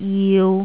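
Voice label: "jiu3", Jyutping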